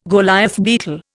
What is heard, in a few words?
"Goliath beetle", Indian